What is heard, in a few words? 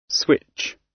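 "switch", British English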